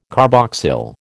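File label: "carboxyl", American English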